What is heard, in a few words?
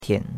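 "tian3", Mandarin Chinese; Cours-de-Chinois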